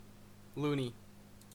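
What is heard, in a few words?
"loony", Canadian English; pronunciations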